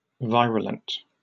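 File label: "virulent", British English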